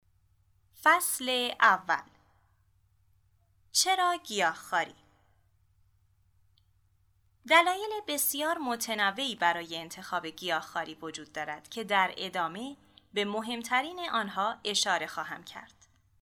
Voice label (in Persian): نمونه‌ی صدای گوینده‌ی کتاب; شروع گیاهخواری